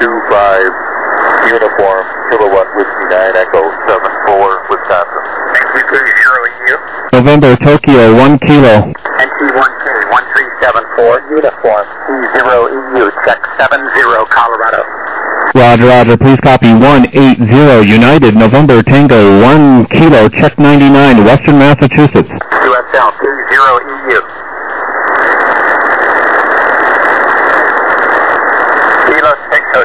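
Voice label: upper sideband 14MHz; SSB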